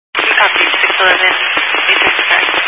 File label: Highway Patrol, scanner; DPS EAST; Dist 06 Casa Grande